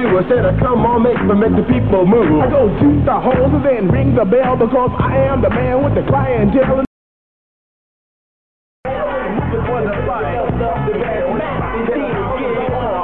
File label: radio; radio active 1242; scottish